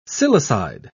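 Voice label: "silicide", British English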